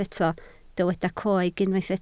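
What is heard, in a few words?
"coeg" Welsh, spoken word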